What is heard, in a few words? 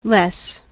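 "less", US English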